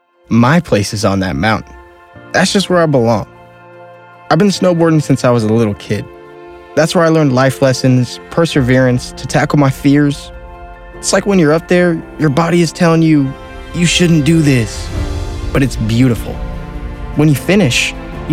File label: kids commercial